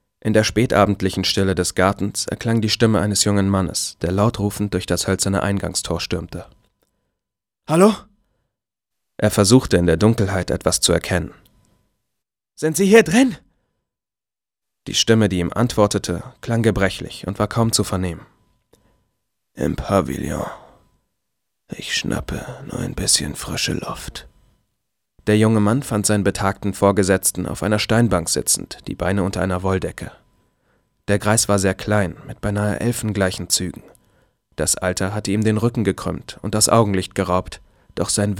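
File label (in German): hörbuch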